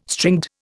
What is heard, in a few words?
"stringed", Indian